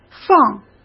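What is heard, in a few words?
"放", Mandarin Chinese; hsk; chinese-flashcards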